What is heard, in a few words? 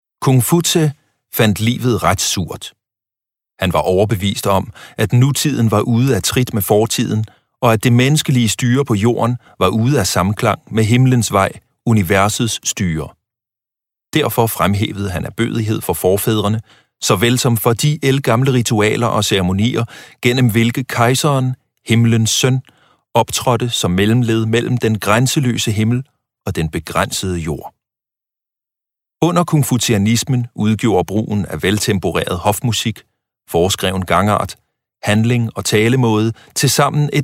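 Danish Audiobook Male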